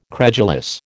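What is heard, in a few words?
"credulous", American English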